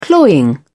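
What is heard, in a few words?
"cloying", English, British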